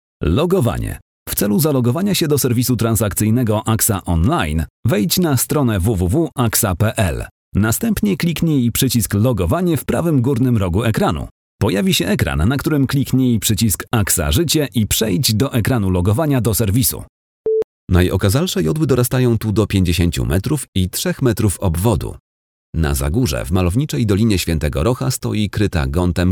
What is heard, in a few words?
polish male